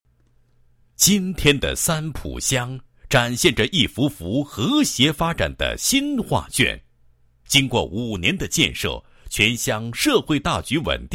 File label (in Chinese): 男 纪实, 经济社会发展专题片解说词; 三堡乡